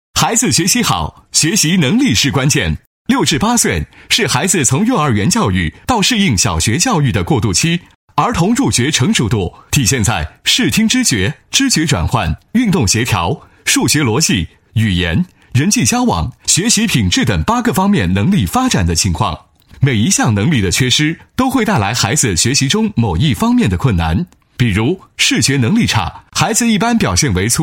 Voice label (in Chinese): 男 广播 儿童学习能力训练工具, 国语男声配音 磁性